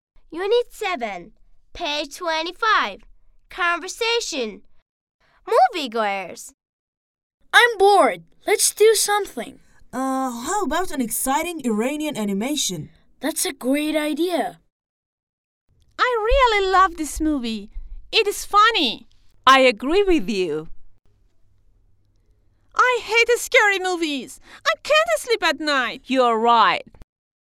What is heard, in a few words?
conversation